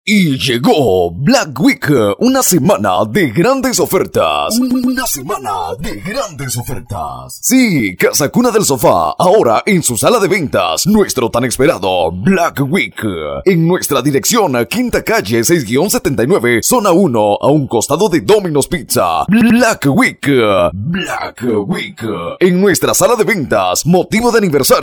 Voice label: spot black week